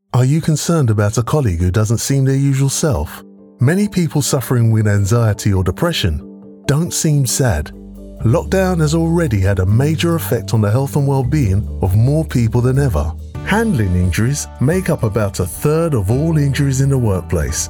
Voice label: English Voice Over Cheerful

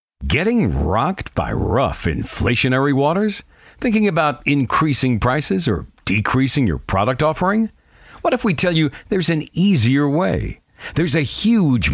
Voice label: English Voice Over Sample

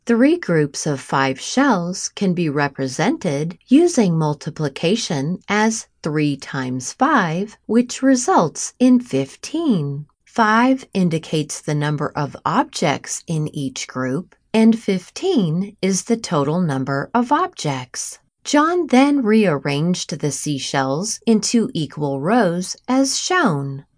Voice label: English Voice Over

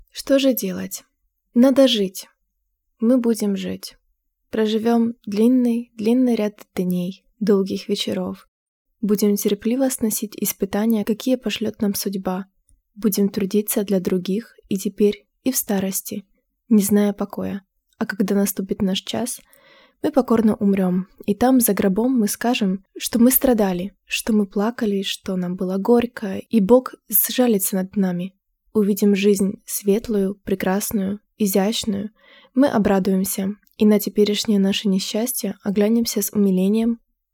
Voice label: Russian Voice Warm Calm

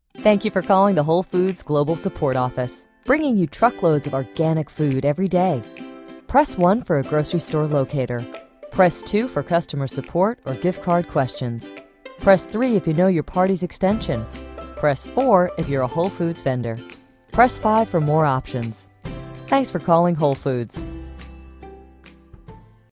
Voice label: English Voice Over Sample

